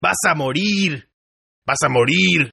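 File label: Toughguy Die Spanish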